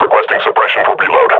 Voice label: reload, combine grunt; vo